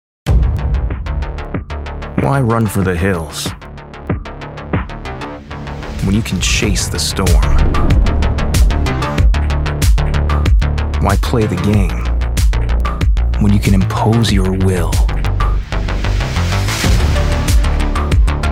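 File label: TV Werbespots Online Werbespots, English US; NATIVE PROFISPRECHER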